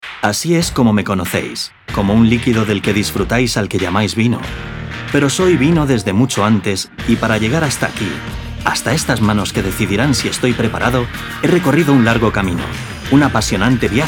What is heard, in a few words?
documentary film